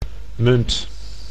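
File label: "munt", Dutch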